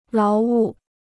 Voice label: "劳务", Chinese

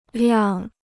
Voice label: "辆", Chinese

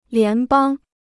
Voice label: "联邦", Chinese

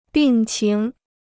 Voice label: "病情", Chinese

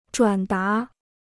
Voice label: "转达", Chinese

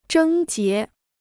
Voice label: "症结", Chinese

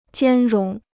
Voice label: "兼容", Chinese

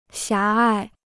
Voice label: "狭隘", Chinese

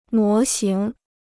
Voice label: "模型", Chinese

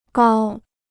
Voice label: "高", Chinese

